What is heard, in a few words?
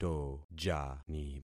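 "tojani", concatenated syllables; Affixing experiment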